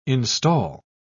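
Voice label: "instal", British English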